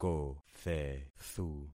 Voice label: "kothethu", concat syllables; Affixing experiment

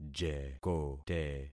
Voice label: "jekote", concat syllables; Affixing experiment

